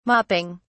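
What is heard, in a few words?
"mopping", British English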